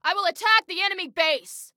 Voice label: Self Attack Base, Female

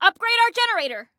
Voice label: Upgrade Generator, Female; Female 02

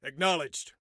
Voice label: Command Acknowledged, Soldier Male

